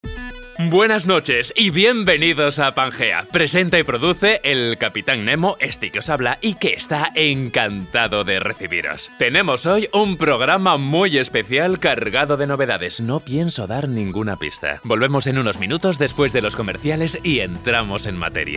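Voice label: Spanish, Fremdtake Dynamisch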